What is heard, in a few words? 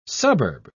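"suburbs", British English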